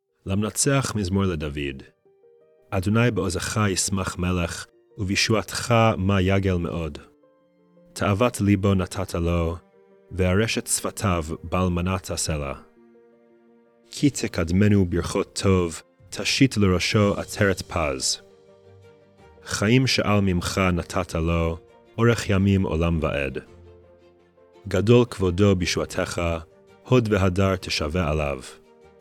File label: poetry and prayer psalm hebrew